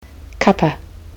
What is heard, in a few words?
"cuppa", kiwi english